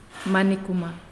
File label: "Woman", Naasioi